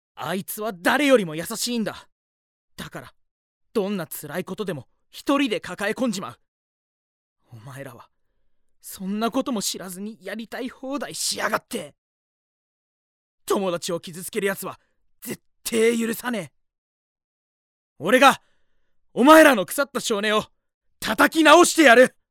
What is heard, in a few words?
Passionate Hero; 情に厚い主人公; bloomz-inc